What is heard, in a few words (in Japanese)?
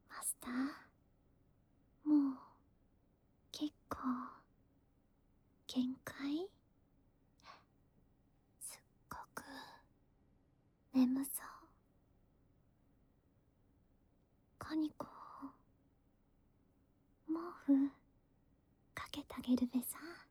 羊毛毛布でふかふかぐっすり、かにこの囁き安眠導入; ASMR of Rail Works; CV.田中理恵